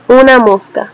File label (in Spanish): fly desc, es; insects; tuxpaint stamps; animals